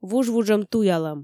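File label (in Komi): вужвуджӧм туялӧм, Komi, female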